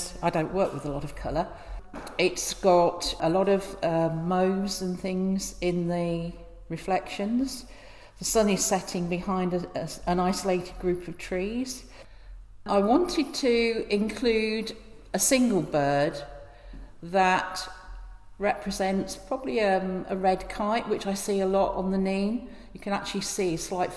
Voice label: talking about her tapestry; tapestry murmuration; farfield mill